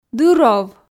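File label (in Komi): "дыр ов", Komi, female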